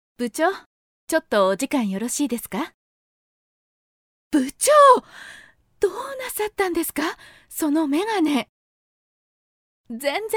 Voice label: female; 若い女性